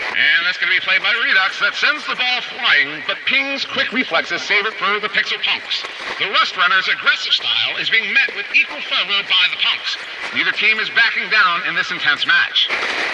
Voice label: Transmission Echoes